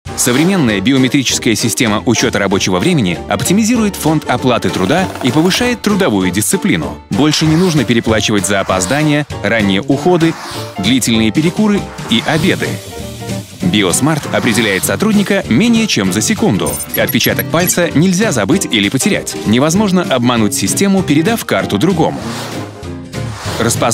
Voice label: male russian e-learning; biosmart

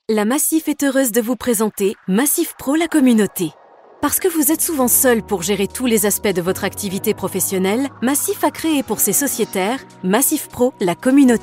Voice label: female French corporate; macif

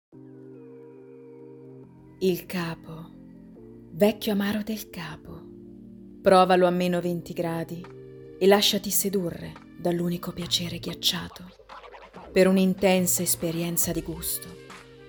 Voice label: female italian commercial; amaro del capo

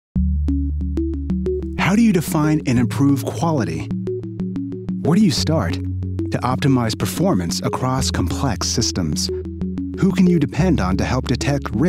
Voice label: male English USA North American accent corporate; voices; devine voices

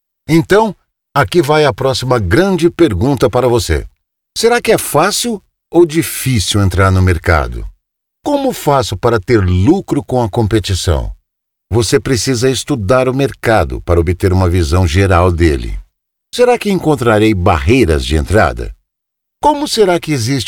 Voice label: male brazilian portuguese animation

